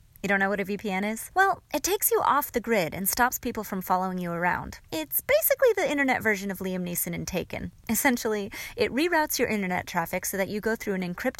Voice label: Narration